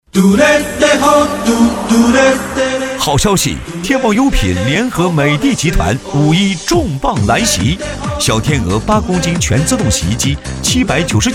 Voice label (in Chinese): 男, 促销; 天猫优品